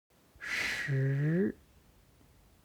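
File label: "shi", Chinese; 十; HSK Level One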